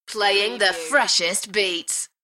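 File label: British female Playing the freshest beats; beanstalk audio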